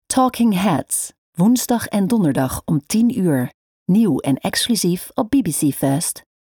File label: Promo; BBC First